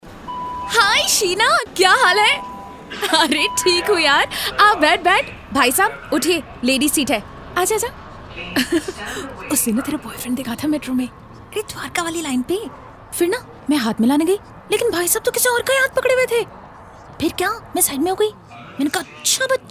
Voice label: TVC2 hindi